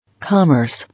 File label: "Commerce", English